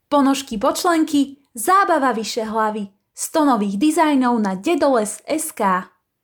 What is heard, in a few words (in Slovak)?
zensky hlas voice over